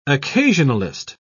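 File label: "occasionalist", British English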